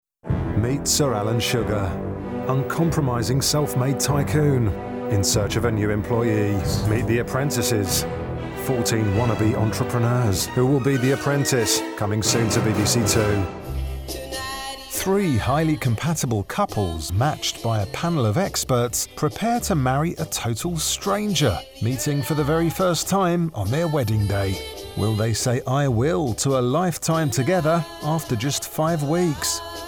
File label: trailers